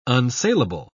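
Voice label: "unsaleable", English, British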